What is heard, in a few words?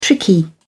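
"tricky", British English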